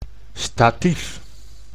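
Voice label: "statief", Dutch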